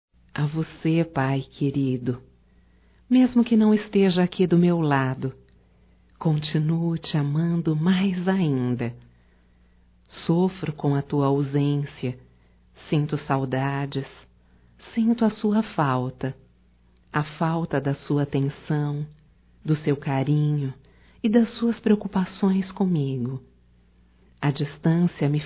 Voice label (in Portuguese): Dia dos Pais Distante Feminino